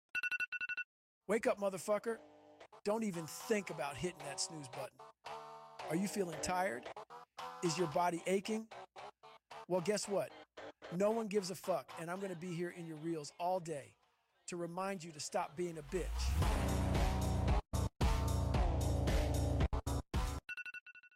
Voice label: motivation speech ringtone; android